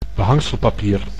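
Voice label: "behangselpapier", Dutch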